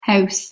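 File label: "h2eus", Proto-Indo-European, east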